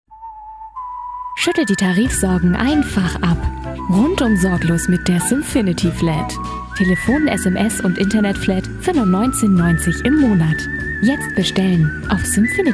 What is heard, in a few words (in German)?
werbung, deutsch frauen